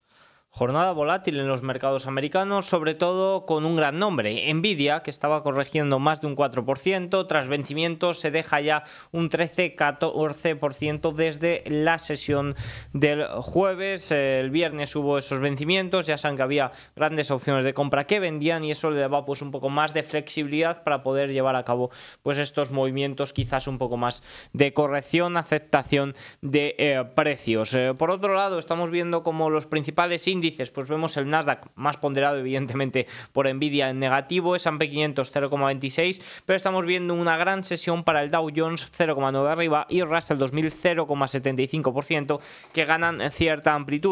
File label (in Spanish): radio; noticias bolsa